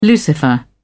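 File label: "lucifer", British English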